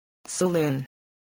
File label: "saloon", English spelling